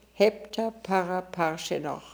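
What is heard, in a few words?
"Heptaparaparshinokh", pronunciations; Fourth Way; Beelzebub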